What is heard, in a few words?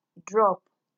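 "drop", Nigerian Pidgin, female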